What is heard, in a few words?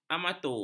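"amatur", Malay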